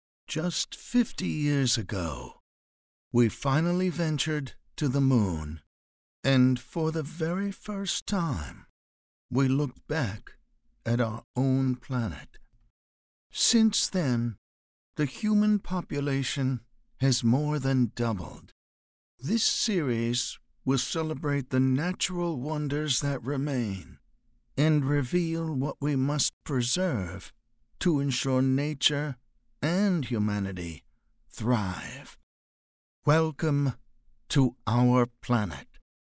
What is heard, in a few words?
english american documentaries